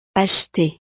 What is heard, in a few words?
"acheter", francés